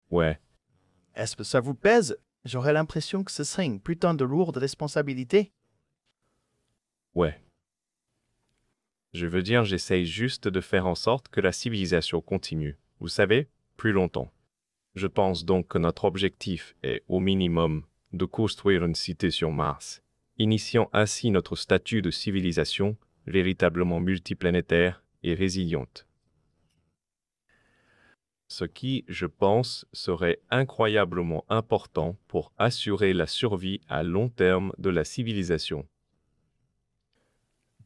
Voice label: french; Subformer